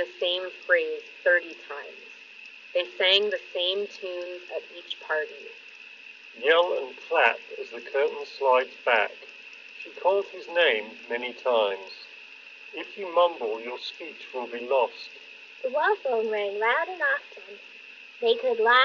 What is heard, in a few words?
LG Tone Free FP9 Wind microphone sample